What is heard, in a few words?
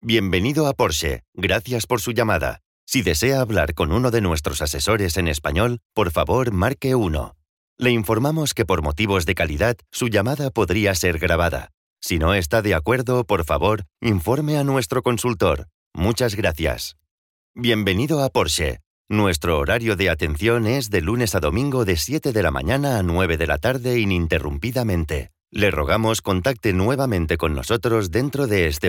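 European Spanish, IVR; voice demos; Golocalise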